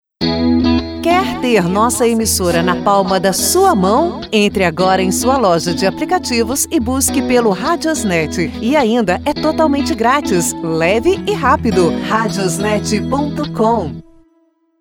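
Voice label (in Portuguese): Quer ter a nossa emissora na palma de sua mao, feminino; RadiosNet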